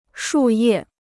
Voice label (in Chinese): "树叶", Chinese